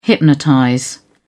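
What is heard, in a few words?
"hypnotize", British English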